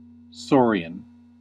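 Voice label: "saurian", American English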